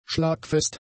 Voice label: "schlagfest", German; adjectives

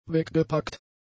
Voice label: "weggepackt", German; adjectives

